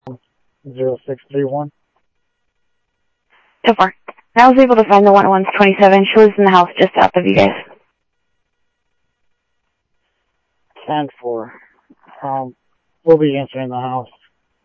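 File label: Sheriff West County, scanner audio trunk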